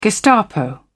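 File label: "gestapo", British English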